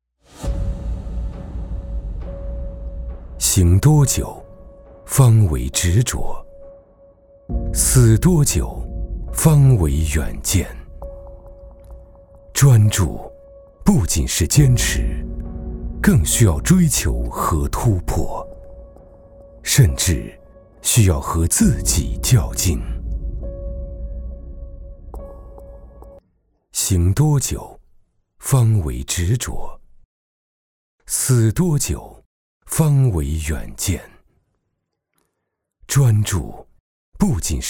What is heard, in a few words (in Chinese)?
高端 质感; 行稳致远